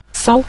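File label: "sao", Mandarin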